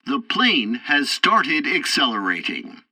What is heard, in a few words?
tutorial takeoff preparation, english; War Thunder